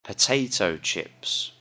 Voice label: "potato chips", English; Common Prepared Foods